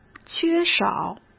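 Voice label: "缺少", Mandarin Chinese; chinese-flashcards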